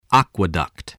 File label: "aqueduct", English